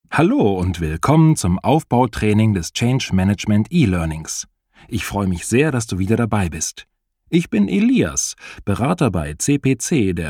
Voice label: elearning; cpc